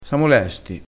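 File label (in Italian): "lesto", vocabolario dialettale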